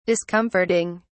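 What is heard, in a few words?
"discomforting", English, British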